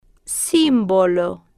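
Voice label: "símbolo", Spanish, female, slow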